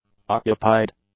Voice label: "occupied", english; adjectives